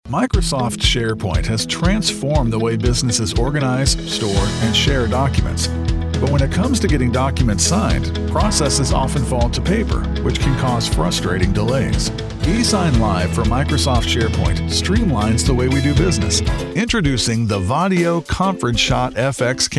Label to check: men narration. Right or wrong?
Right